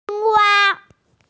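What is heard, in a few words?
"frog", hearing impaired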